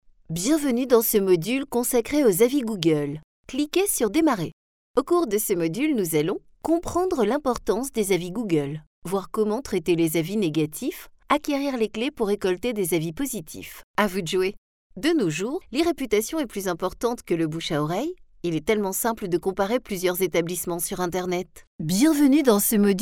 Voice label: e-learning